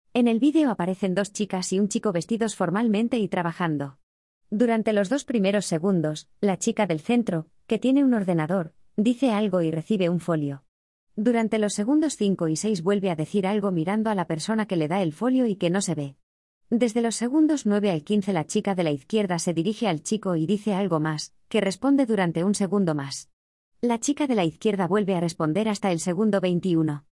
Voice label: DUBBING